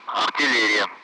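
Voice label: Speech Sound Russian Common Allied Flight; target-artillery; DCS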